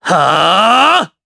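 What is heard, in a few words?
Vox Casting, Japanese; Zafir; kingsraid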